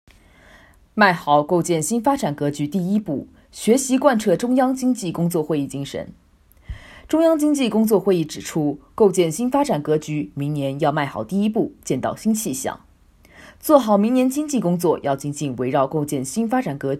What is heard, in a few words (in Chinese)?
新闻播报中文